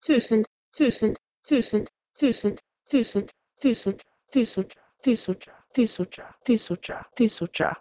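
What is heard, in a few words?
Proto-Indo-European tuh2sont to Croatian tisuca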